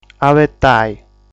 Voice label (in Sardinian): "Abetai", sardu